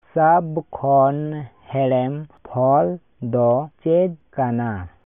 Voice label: Santali; Which is the sweetest fruit?